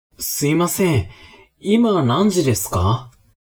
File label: man